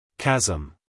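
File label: "chasm", American English, male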